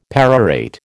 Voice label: "perorate", American